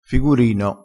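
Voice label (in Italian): "figurino", vocabolario dialettale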